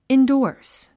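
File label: "endorse", English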